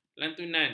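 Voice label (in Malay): "lantunan", Malay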